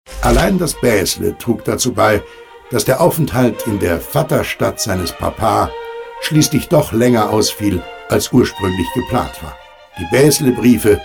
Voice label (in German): Erzähler; Mozart